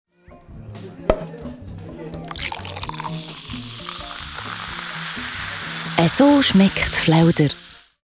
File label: radio spots; flauder; verniss